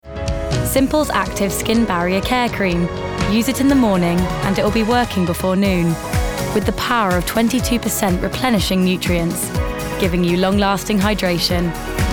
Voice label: female english, southern and northern england; Devine Voices